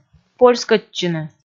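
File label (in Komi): "польскӧдчыны", Komi, female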